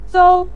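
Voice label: "zou" neutral tone, Mandarin Chinese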